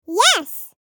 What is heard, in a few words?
Tiny meme voice "yes"